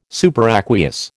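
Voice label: "superb", American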